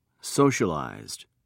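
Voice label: "socialized", British English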